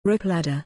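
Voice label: "rope ladder", English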